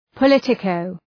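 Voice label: "politico", English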